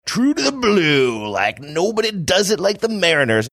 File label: saying true to the blue sports radio promo voice